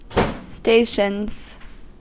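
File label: "stations", US English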